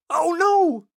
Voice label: pedestrians hit disgust; donnie; Blind Drive